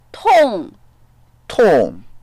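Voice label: "tong4", Mandarin Chinese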